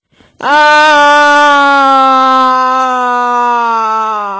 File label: 14yo voice